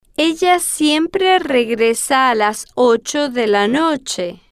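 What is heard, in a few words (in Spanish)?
ella siempre regresa a las ocho de la noche, spanish, female, slow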